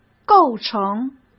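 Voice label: "构成", Mandarin Chinese; chinese-flashcards; hsk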